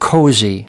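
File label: "cozy", English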